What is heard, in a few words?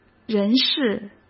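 "人事", Mandarin Chinese; hsk; chinese-flashcards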